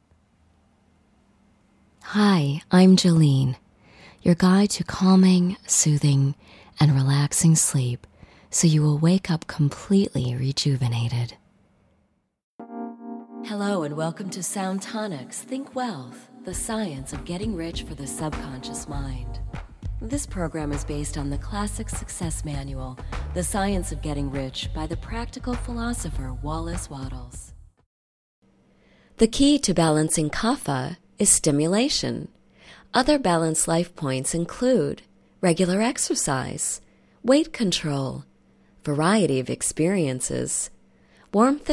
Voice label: narration

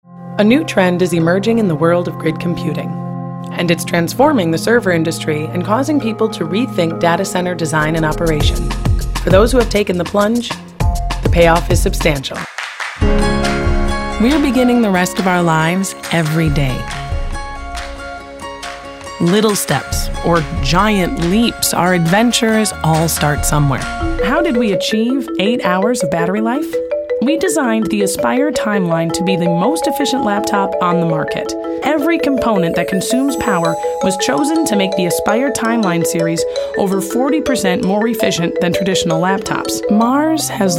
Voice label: Narrative VO

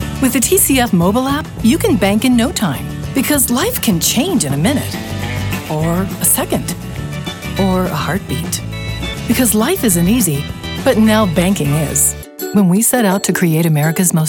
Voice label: Commercial VO

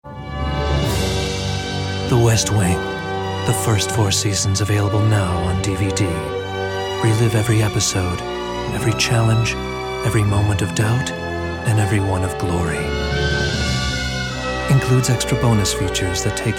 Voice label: Commercial

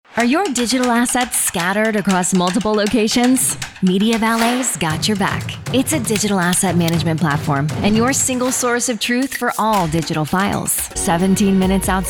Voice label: female commercial

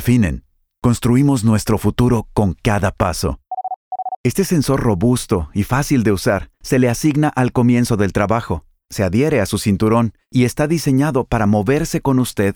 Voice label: Narration

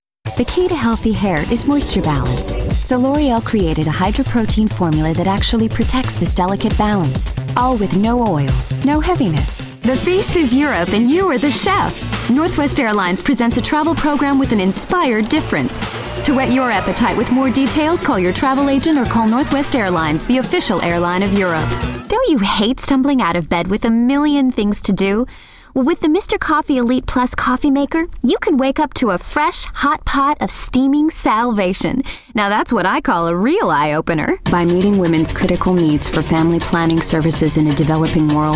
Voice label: commercial

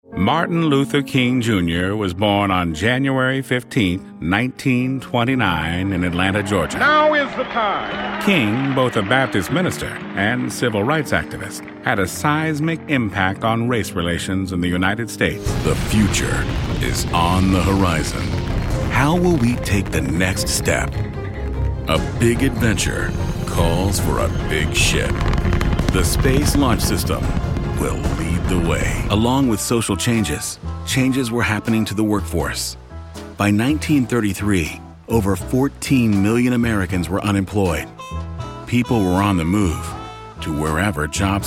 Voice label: Male, narration

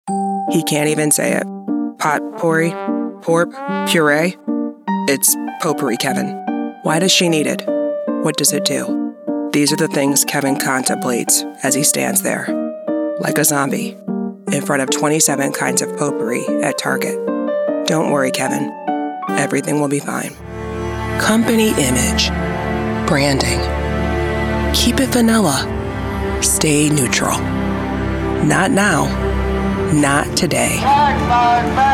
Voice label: Female Commercial

